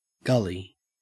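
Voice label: "gully" Australian English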